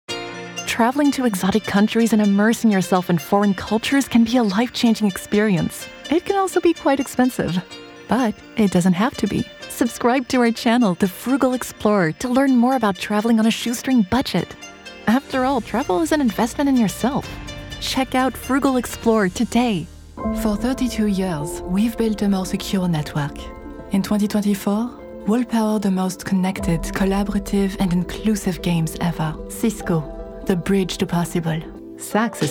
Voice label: commercial